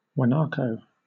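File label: "guanaco" British English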